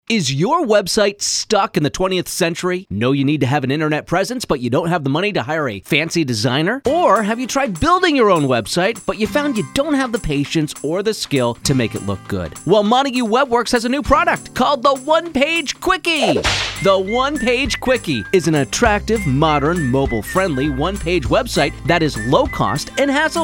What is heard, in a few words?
Radio Ad; Montague WebWorks